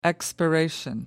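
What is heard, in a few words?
"expiration", English, British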